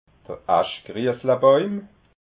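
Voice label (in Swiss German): "aschgriesslabauim", Alsatian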